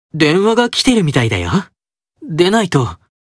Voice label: Call Notification Voice; Simeon